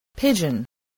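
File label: "pigeon", spoken word, spelling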